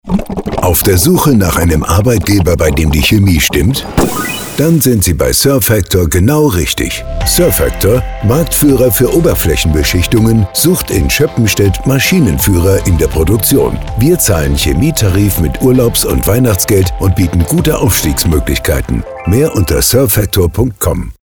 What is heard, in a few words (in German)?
Funkspot und Reminder Recruiting